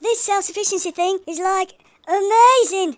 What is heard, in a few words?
first blood, speech, Hippy